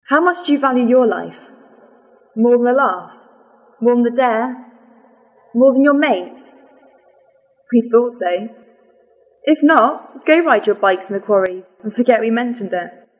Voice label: Radio Ad